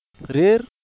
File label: reer accent, Wolof